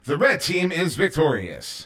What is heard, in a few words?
Wins Red Team, announcer